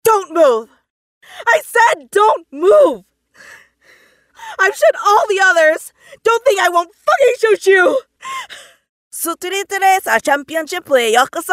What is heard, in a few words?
VOX ONLY DRY